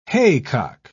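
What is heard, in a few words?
"haycock", British English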